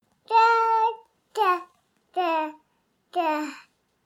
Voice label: baby talk count